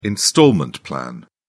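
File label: "installment plan", British English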